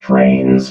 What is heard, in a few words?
"trains", robot